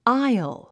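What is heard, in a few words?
"aisle", English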